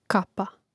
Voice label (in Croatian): "kapa", Hrvatski; Hrvatski mrežni rječnik; Mrežnik